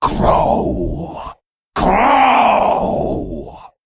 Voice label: Female Worgen Attack